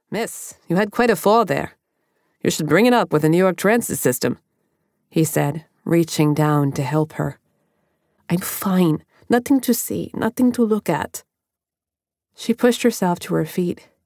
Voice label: New York and Polish accents M F F dialogue